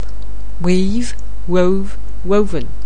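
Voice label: "weave", English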